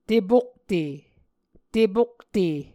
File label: "tepokte", Muscogee